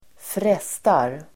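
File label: "frestar", Swedish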